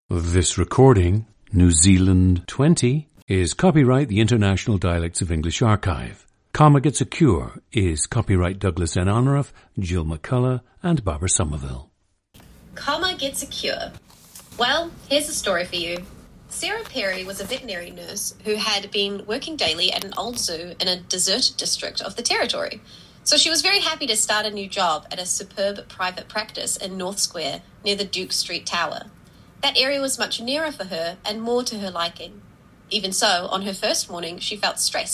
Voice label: New Zealand